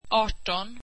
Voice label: swedish eighteen

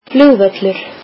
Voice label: icelandic airport

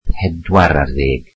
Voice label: welsh fourteen

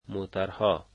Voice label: "cars", Dari

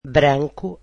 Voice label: "white", Portuguese

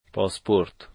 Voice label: "passport", Dari

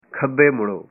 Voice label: punjabi Turn left

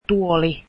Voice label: chair, Finnish

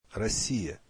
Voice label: russian Russia

